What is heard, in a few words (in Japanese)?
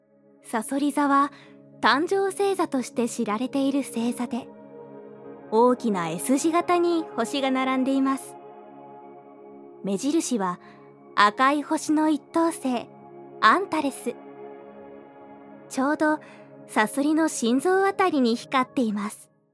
NAサンプル